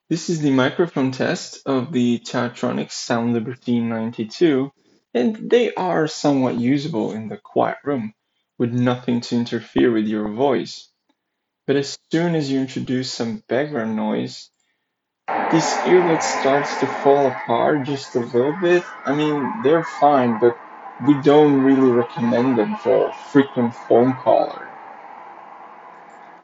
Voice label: taotronics 92 microphone test

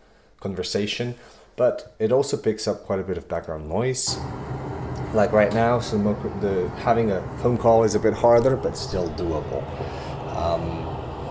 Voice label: Aukey EP T21 microphone quality test

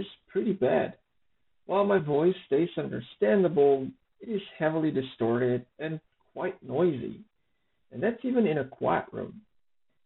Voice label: BuddyPhones Play mic test

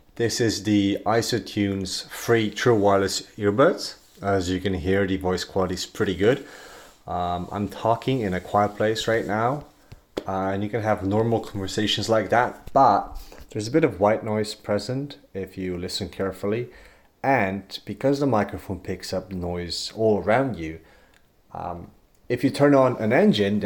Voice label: mic test; ISOtunes Free

